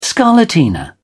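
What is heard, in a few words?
"scarlatina", British English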